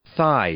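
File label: "thigh", English, male; noun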